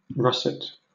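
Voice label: "russet", British English; pronunciations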